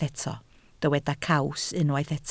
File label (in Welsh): "caws", Welsh